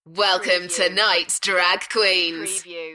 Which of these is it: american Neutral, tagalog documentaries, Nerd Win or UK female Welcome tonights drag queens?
UK female Welcome tonights drag queens